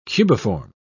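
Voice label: "cubiform", British English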